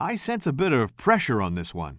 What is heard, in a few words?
commentator